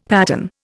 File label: "pattern", Indian; Dictionary